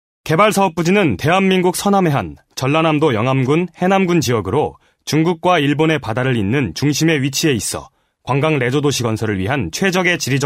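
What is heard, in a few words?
Korean, male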